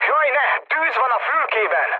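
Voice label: on fire, mood med, Hungarian; War Thunder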